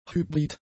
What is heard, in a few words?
"hybrid", German; adjectives